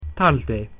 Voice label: latin slowly

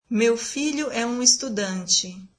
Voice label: brazilian my son is a student

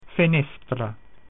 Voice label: latin window

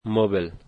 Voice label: "furniture", dari

